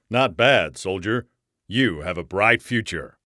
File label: animation game character